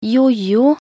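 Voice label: "jojo", Norwegian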